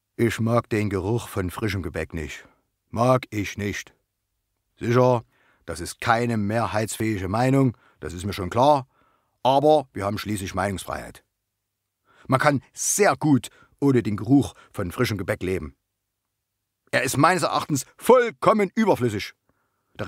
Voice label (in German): sächsisch